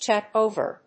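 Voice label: "chat over", English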